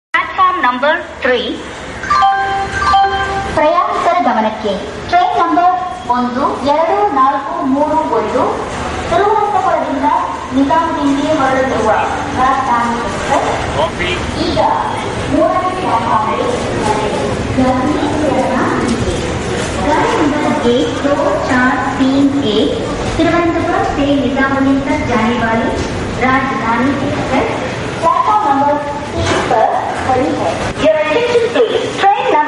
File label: railways announce